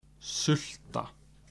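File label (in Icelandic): "sulta", Icelandic; matarhefti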